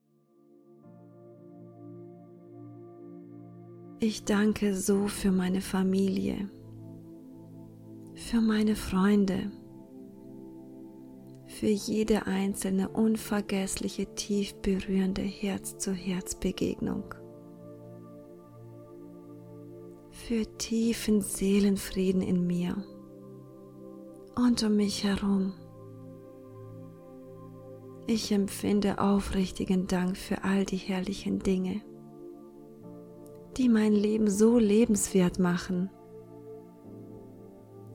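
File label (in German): Hörprobe Dankbarkeitsmeditation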